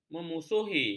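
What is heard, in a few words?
"memusuhi", Malay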